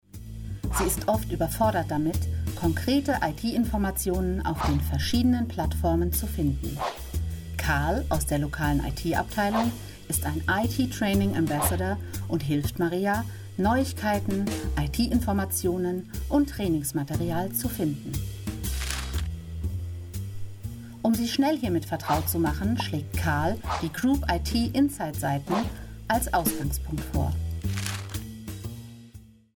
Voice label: Lernvideo

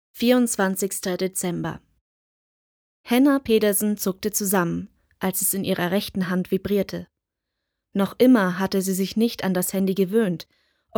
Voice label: Hörbuch; Der Schmetterling

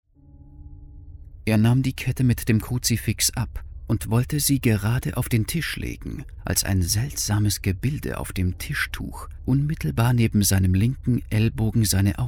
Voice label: Hörbuch; Die Sammlung des Domherrn Alberic

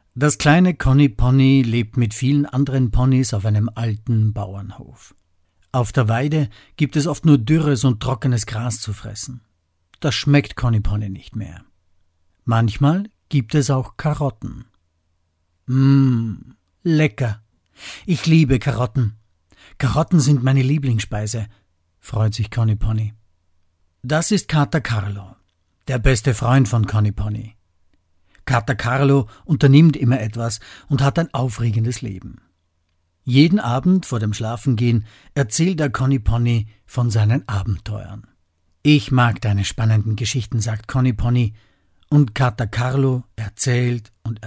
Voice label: Hoerbuch; Conny Pony

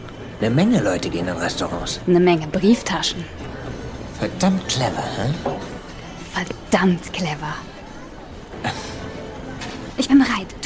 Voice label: Synchronisation Realfilm

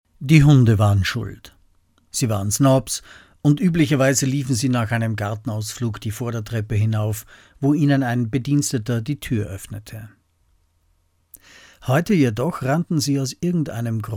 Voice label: Hoerbuch; Queen

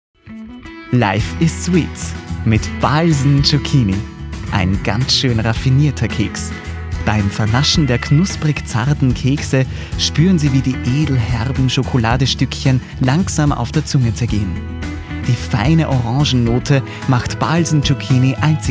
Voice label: werbung

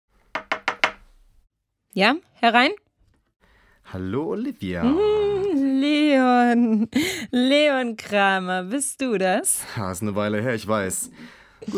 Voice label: Dialog

